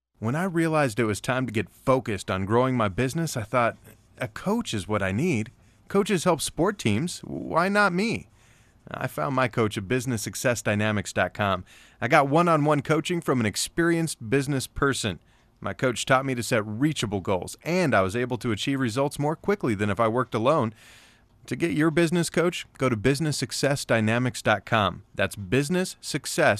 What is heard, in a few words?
radio